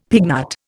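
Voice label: "pignut", Indian English; Dictionary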